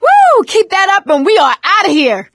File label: hurrah, survivor voice; Left 4 Dead 2; producer